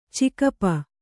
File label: "ಚಿಗಪ್ಪ", Kannada